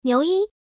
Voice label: female